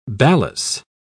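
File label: "balas", British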